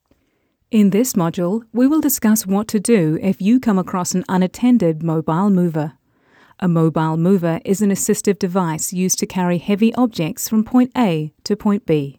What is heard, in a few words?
E-Learning Onboarding